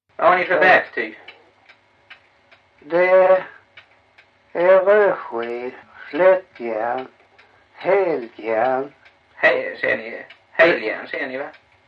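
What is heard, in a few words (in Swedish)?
Backaryd Blekinge, Svenska sagor dialekter; Folkminnen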